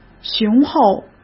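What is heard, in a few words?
"雄厚", Mandarin Chinese; hsk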